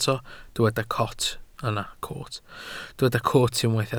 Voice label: "coot", Welsh